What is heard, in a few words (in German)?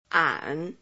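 Phoneme, chinesisch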